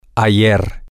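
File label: yesterday, Spanish, male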